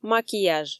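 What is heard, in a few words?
"макияж", Komi, female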